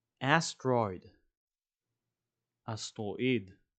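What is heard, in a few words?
"asteroid" English, "אסטרואיד" Hebrew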